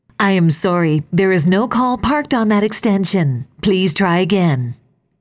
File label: dial error, ivr